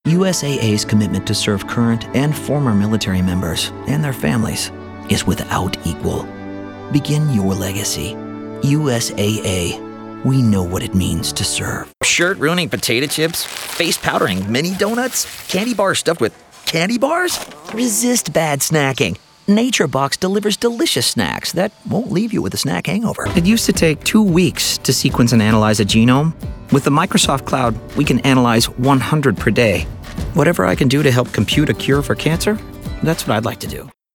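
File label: voice over male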